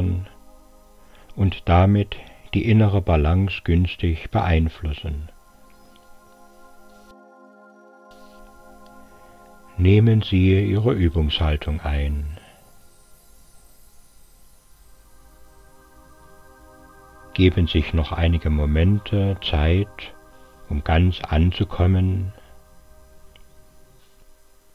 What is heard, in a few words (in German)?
Atem Med, Entspannung; Entspannungstraining; entspannung digital